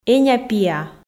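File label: "эня-пиа", Komi, female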